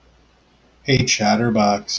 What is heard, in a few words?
hey chatterbox, en-us